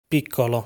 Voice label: "Piccolo", Italian pronunciation